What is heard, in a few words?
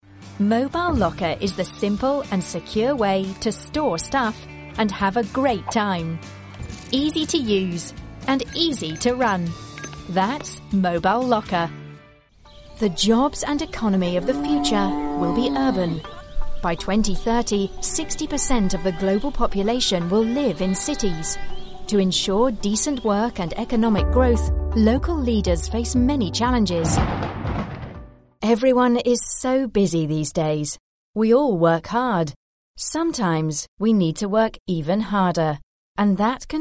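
English F Corporate, female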